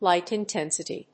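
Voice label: "light intensity", English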